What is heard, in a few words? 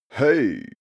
male "hey" laid-back